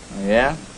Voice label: "oh yeah" questioning guy; ooh ahh

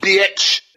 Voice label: "bitch", expletives

